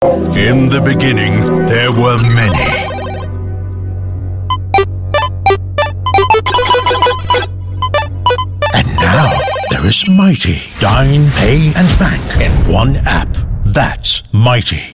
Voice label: Asian English; UOB Mighty Brick